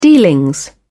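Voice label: "dealings", British English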